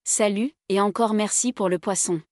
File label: so long, French